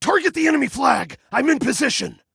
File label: Target Flag, Male